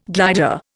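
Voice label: "glim", Indian; Dictionary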